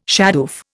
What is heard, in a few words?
"shadoof", Indian